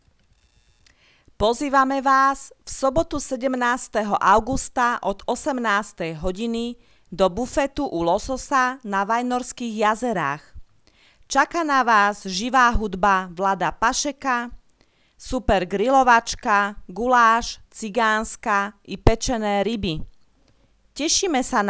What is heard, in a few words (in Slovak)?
komercne hlasenie; bufet u lososa vajnorske jazera